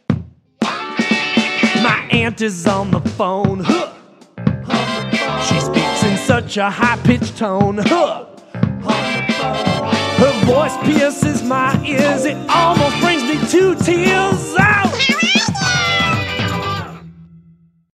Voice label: aunt voice

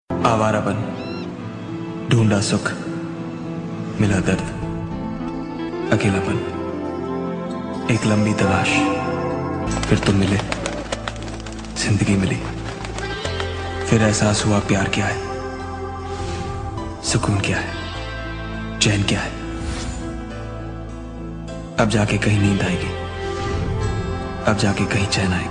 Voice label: Hindi Dialouge

